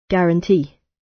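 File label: "guarantee" British English; The arts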